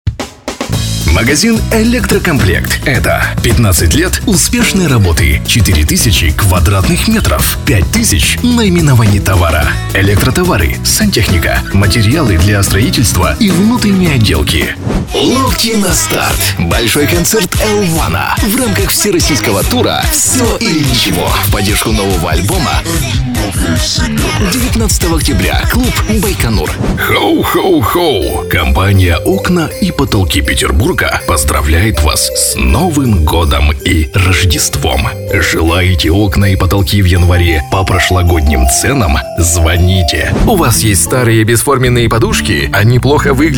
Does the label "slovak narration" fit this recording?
No